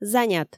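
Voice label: "занят", Komi, female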